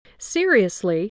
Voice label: "seriously", spelling

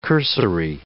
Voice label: "cursory", english

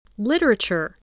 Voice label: "literature", spelling

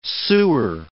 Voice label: "sewer", English

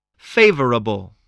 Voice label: "favorable", spelling

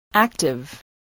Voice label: "active", English voice

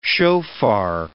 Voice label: "shofar", English

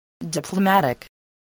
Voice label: "diplomatic", english spelling

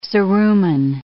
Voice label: "cerumen", English

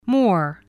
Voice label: "moor", voice, spelling

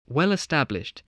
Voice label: "well-established", spelling

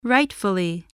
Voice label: "rightfully", English spelling

